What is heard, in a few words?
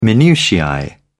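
"minutiae", British English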